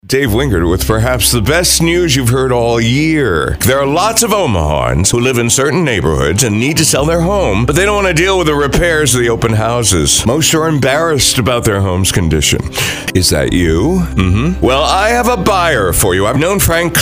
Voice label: Radio Endorsement; Omaha Boomer Radio KIBM